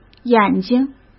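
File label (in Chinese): "眼睛", Mandarin Chinese; chinese-flashcards; hsk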